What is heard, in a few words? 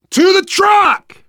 arrive truck, survivor voice, biker; Left 4 Dead 2